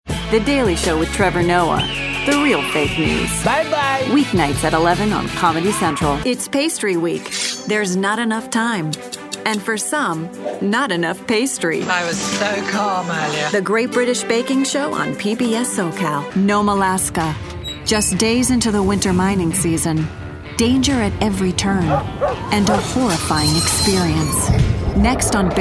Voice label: english american promos; Timeless, sophisticated